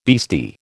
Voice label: "beastie", American

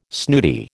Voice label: "snooty", American

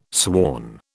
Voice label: "sworn", British

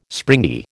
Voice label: "springy", American

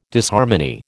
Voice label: "Disharmony", American

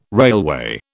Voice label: "railway", British

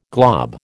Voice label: "glob", American

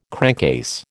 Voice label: "crankcase", American

